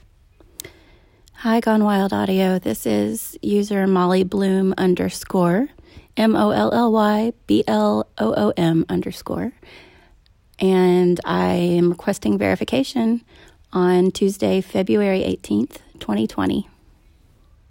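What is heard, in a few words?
Verification, Female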